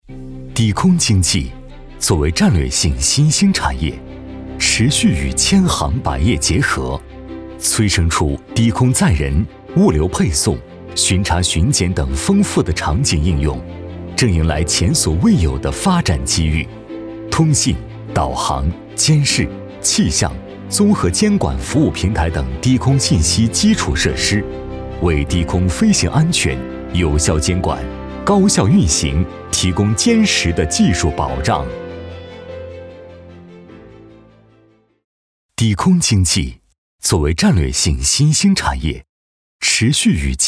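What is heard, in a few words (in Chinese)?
男国大音-科技; 数智低空解决方案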